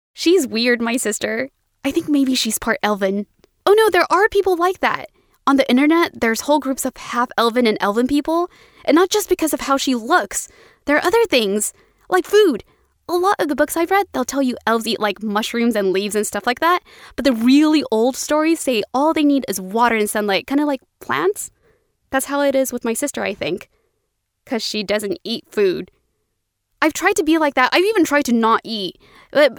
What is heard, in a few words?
Animation